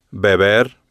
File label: "beber", Spanish